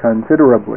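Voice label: "considerably", English; ABE Responsive Text Demo; CD-ROM Chapter 2.2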